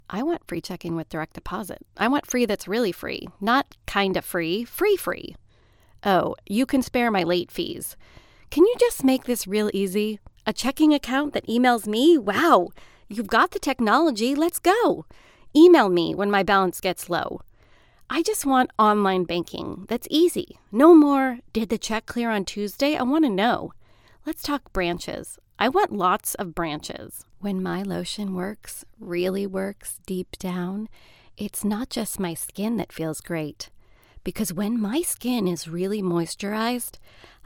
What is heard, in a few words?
Commerical Sample Home Setup